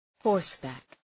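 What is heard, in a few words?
"horseback", English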